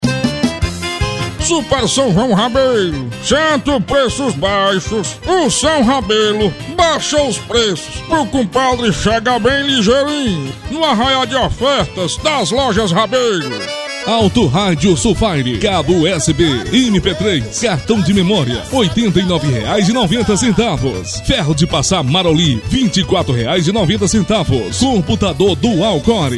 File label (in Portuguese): offs locução